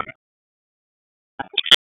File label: Police North District Tac 1, p25; Raleigh; wake